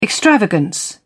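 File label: "extravagance", British English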